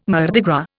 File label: "Mardi Gras", Indian